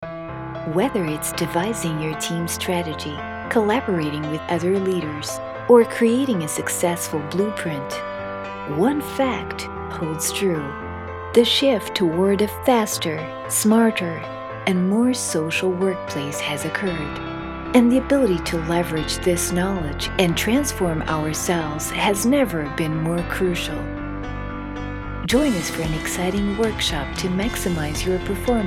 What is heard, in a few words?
female Portuguese transatlantic accent commercial compilation